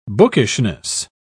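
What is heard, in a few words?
"bookishness", British English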